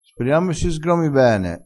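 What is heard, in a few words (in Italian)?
"sgroma", vocabolario dialettale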